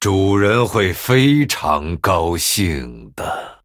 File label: Male Night Elf MIRROR START; zh